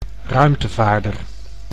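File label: "ruimtevaarder", Dutch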